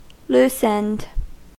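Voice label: "loosened", US English; pronunciations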